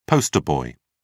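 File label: "poster boy", British English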